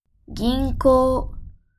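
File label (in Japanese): "銀行", Japanese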